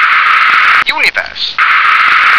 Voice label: "universe", English

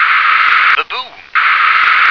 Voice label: "baboon", English

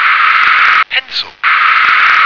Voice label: "pencil", English

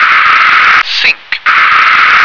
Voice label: "sink", English

